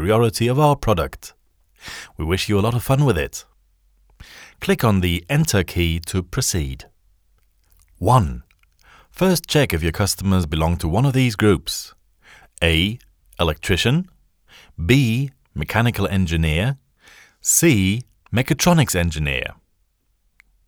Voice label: e learning eng; sprecher